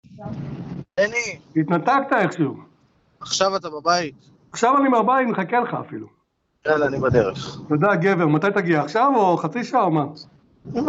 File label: Call recording